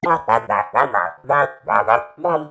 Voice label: murmur f